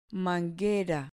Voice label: "manguera", Spanish